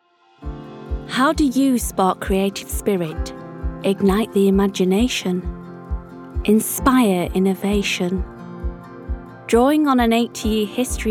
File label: Corporate; Canon